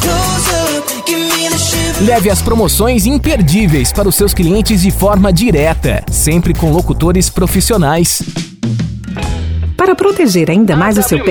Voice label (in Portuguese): radio indoor pet shop; AW Midias